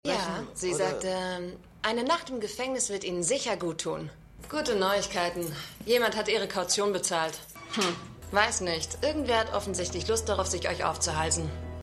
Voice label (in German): Polizistin; Stimmproben; GCPD; Arrow